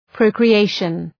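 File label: "procreation", English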